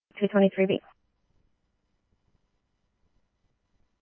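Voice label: scanner, Phoenix Police Department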